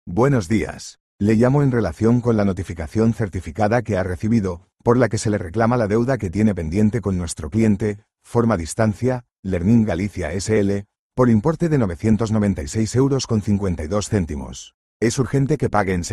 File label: audio call